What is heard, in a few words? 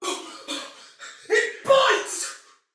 Damage Low Chance Soldier Female; Battle; Barks; Dialog